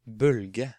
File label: "Bølge", Norwegian